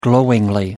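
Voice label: "glowingly", English, British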